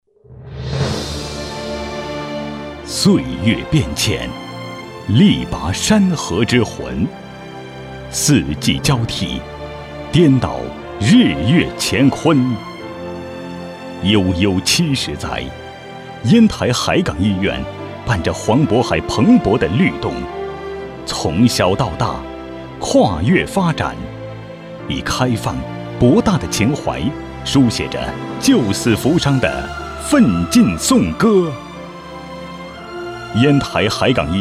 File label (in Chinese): 男 专题 医院 大气浑厚